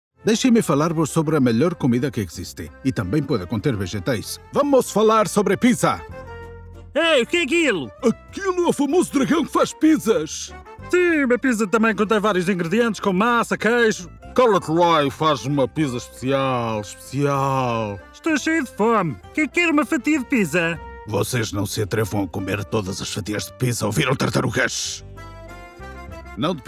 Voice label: Portuguese Cartoon